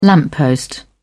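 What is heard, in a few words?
"lamppost", British English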